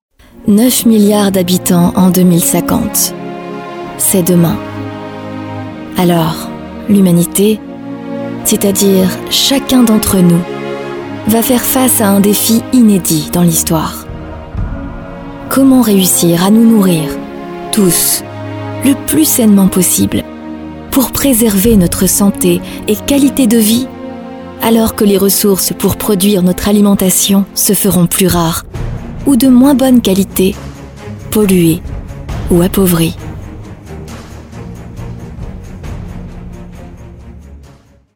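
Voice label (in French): FILM INSTITUTIONNEL - VOIX POSEE DRAMATIQUE; FR; LESAFFRE MISSION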